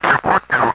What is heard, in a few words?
radio voice, distorted radio voice